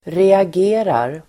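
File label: "reagerar", Swedish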